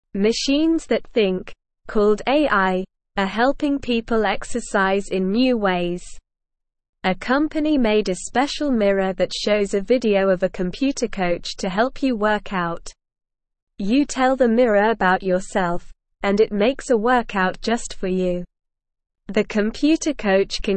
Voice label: English SLOW Reading; Magic Mirror A Helpful Exercise Buddy for Everyone; English Newsroom